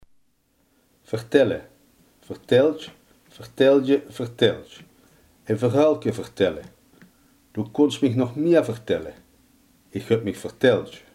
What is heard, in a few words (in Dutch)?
"vertellen", limburgse